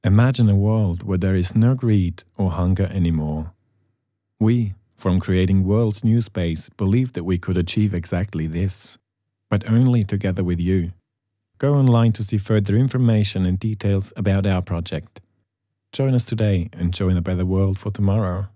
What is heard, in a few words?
male, English with Romansh accent, commercial; romansh and swiss german